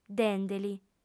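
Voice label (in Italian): "dende·li", lingua sarda, female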